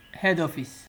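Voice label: "head office", Nigerian Pidgin, male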